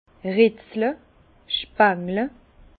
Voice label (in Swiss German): "retzle", Bas Rhin; vin; grappiller après vendange